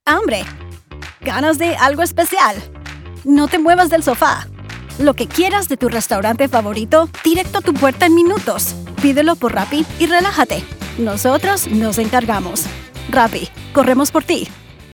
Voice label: Spanish spot; Rappi